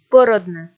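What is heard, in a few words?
"пӧрӧдны", Komi, female; kpv; audio dict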